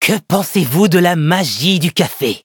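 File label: loading, French; Cookie Run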